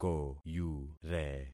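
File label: "koyure", concatenated syllables; Affixing experiment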